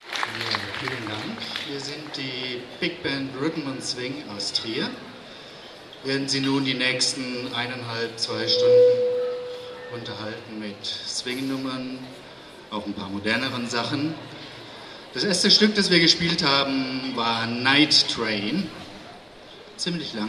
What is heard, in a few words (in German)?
Ansage